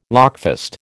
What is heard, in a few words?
"lockfast", American